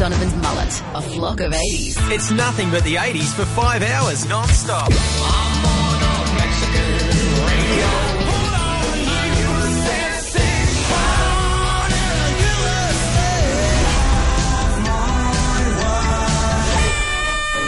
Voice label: Aircheck Classic Rock FM promo; Flock of 80s

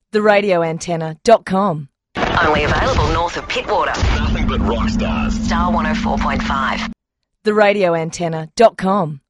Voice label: sweeper; Aircheck; Star 104.5; 2006; just a quick geographic flashback

